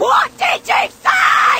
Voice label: what did you say SCREAMED; questions

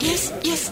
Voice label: yes yes, female

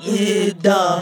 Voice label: "yeeh", voice; ooh ahh

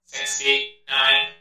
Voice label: fifty nine robot, voice; numbers and letters

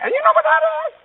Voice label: abyoonobidabbabor, gibbrish; Voice

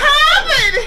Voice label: "ah baby", female; Voice; ooh ahh

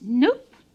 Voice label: nope, british lady; Voice; expletives

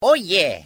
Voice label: "oh yeah", voice

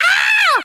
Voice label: ow female

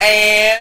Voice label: "and", Voice

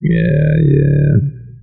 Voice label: yeah yeah robot; ooh ahh; voice

